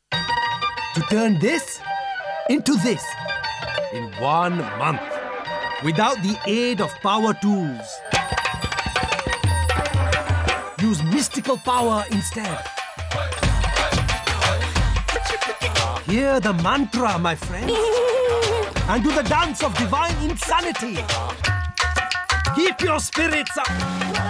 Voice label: AD DEU MAGICAL EXCITABLE UPBEAT ACCENTED; BANGRA BANGERS

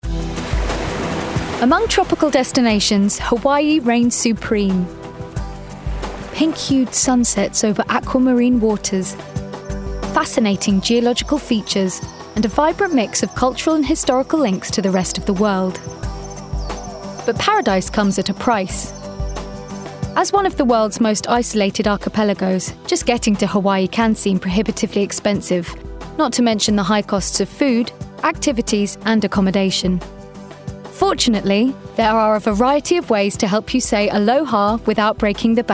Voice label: DOCUMENTARY; Hawaii

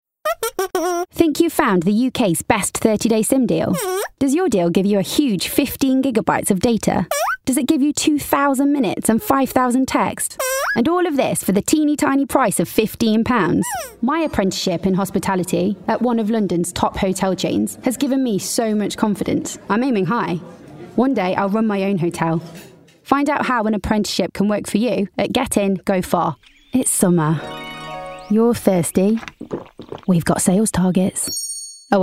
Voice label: commercial voice

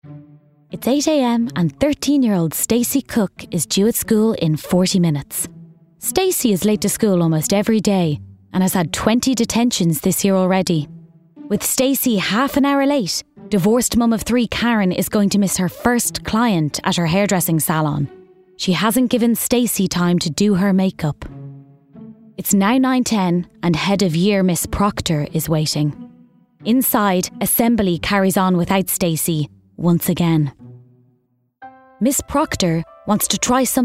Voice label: DOCUMENTARY; Teachers

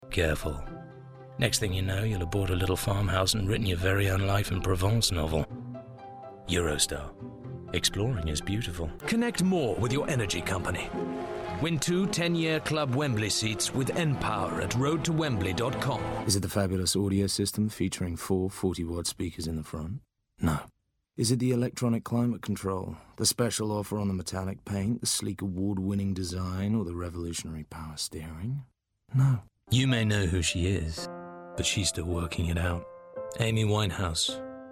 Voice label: Commercial Reel

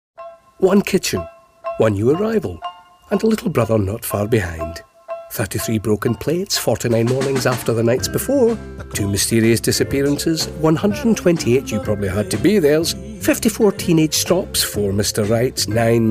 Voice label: Commercial; Anchor

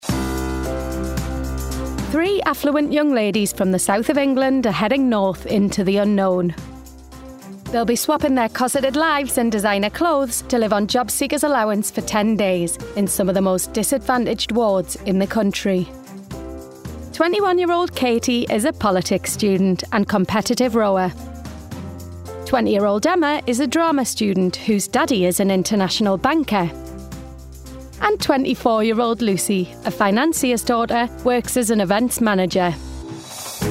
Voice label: narrative

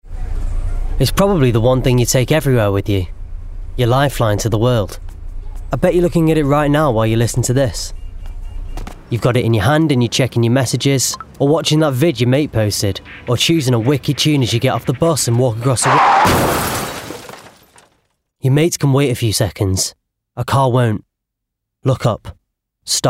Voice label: COMMERCIAL Road Safety

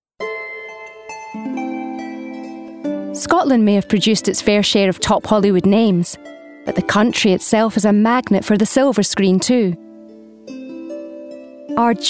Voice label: DOCUMENTARY; Scotland on Screen

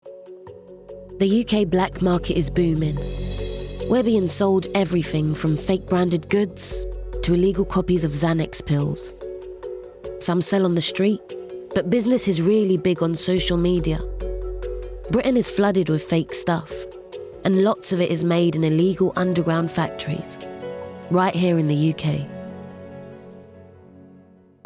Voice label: doco

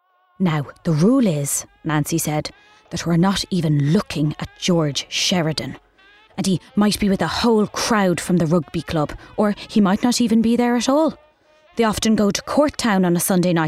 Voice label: audiobook; Brooklyn

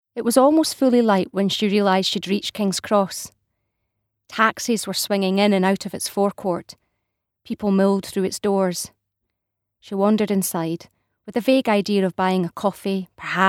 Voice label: AUDIOBOOK; After Youve Gone

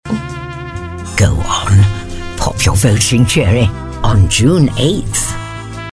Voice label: PROMO; Vote E4

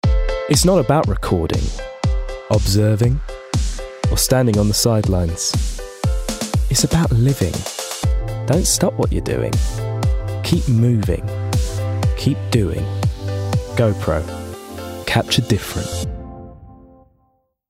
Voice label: commercial; GoPro

